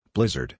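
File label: "Blizzard", English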